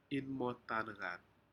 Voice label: "inmotaneat", Aneityum; fish